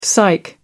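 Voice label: "psych up", British English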